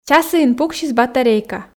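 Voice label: часіын пуксис батарейка, Komi, female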